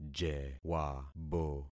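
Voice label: "jewabo", concatenated syllables; Affixing experiment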